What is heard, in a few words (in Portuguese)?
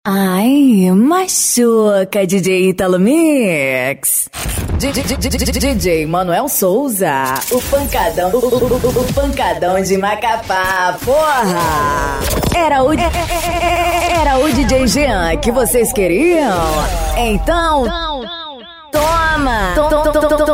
Vinhetas personalizadas para DJs e Rádios